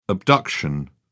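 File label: "abduction", British English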